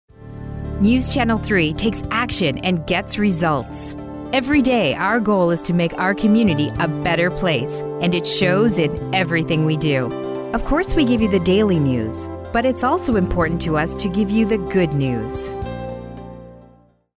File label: NEWS